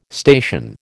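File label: "station", American English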